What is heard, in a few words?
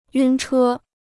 "晕车", Chinese